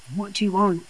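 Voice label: voice acting, es, female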